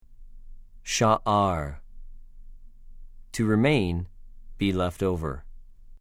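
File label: "שָׁאַר", Hebrew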